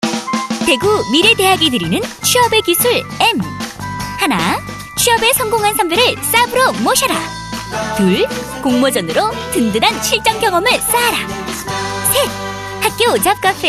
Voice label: korean f